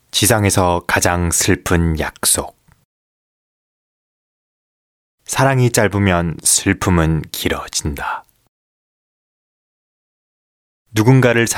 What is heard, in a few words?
Korean Male